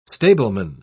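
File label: "stableman", British English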